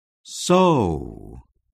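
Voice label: "sew", English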